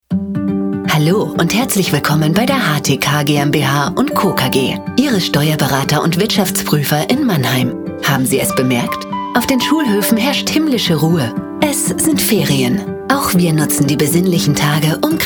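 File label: commercial german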